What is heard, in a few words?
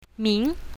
"ming2", Mandarin; introductions